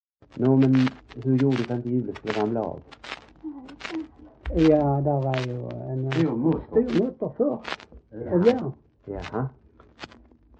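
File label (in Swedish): Äspö Skåne, dialekter; Folkminnen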